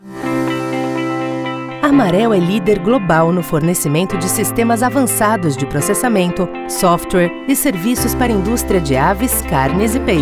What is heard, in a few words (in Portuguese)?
ESPERA TELEFÔNICA